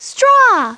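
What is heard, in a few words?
"straw", English; Treasure Galaxy; Learning Company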